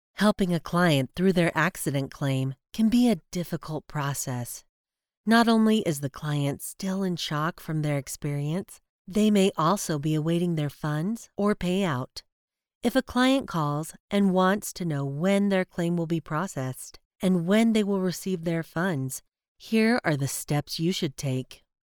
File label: Insurance Training